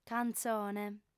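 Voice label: "cantzone", female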